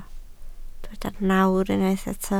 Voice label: "nawr", Welsh

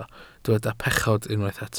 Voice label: "pechod", Welsh

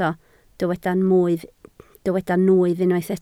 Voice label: "nwyf", Welsh

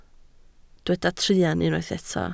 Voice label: "truan", Welsh

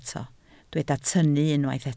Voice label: "tynnu", Welsh

